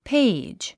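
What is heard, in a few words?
"page", English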